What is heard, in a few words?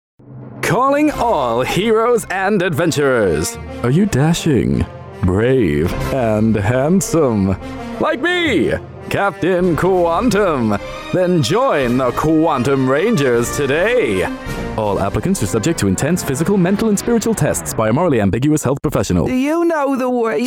Character Gaming